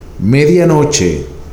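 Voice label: "MEDIANOCHE", Spanish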